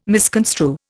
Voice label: "misconstrue", Indian